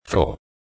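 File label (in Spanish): "fro", Spanish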